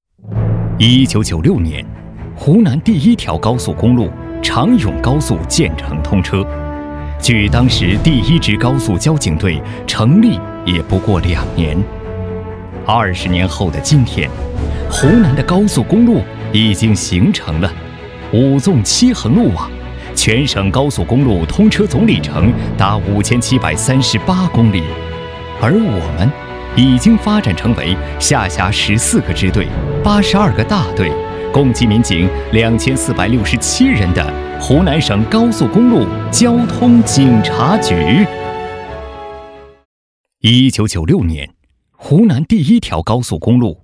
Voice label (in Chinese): 男, 专题, 恢弘大气; 湖南省高速交警